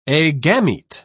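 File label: "agamete", British English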